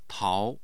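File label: "tao2", Mandarin Chinese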